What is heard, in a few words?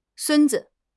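"sun1 zi5", Chinese; HSK4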